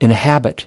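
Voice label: "inhabit", English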